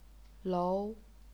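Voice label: "lou", second tone, chinese pinyin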